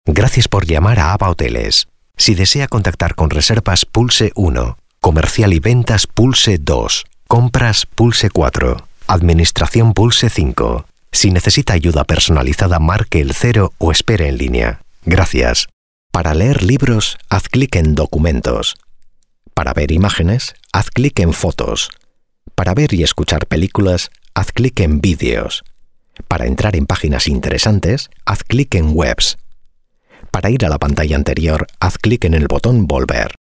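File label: ivr phone systems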